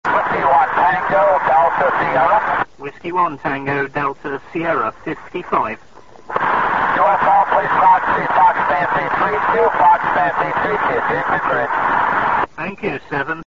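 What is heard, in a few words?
amateur radio contact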